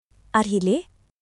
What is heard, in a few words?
Nepali, basic phrases